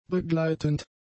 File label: "begleitend", German; adjectives